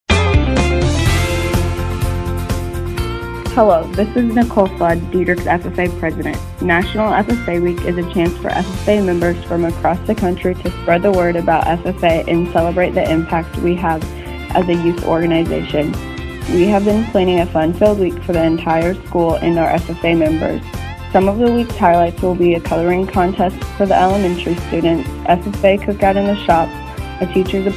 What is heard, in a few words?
female; Dieterich FFA